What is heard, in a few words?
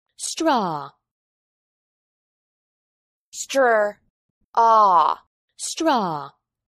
"straw", English